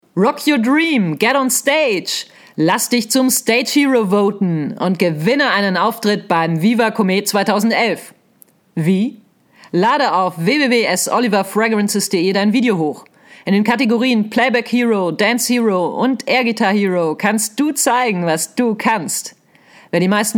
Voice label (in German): Sprecherin Werbung